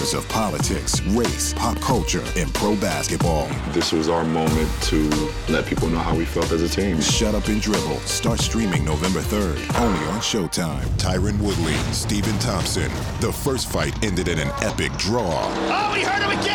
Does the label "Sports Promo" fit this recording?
Yes